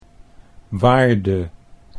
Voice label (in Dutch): "waarde", Dutch